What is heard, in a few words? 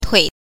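"tui", Mandarin Chinese syllable, neutral tone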